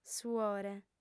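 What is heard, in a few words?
"suore", Sardinian, female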